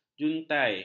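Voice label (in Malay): "juntai", Malay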